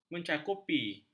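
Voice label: "mencakupi", Malay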